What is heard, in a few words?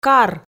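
"кар", Komi, female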